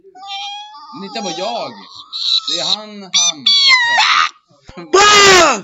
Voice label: scream shit fart asshole